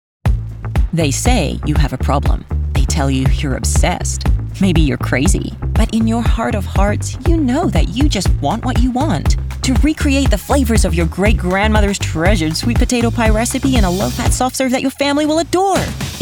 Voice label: Corporate